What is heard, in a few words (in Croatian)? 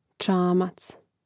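"čamac", Hrvatski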